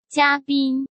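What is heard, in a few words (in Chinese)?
"嘉宾", Mandarin Chinese; hsk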